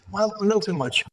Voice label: Voice Acting, es